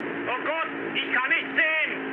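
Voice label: German searchlite mood low, speech; War Thunder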